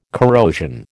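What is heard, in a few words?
"corrosion", American English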